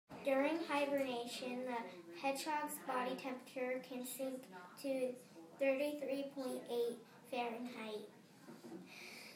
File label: oral reading